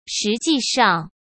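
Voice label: "实际上", Mandarin Chinese; chinese-flashcards; hsk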